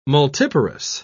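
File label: "multiparous", English, British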